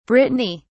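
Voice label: "brittany", British English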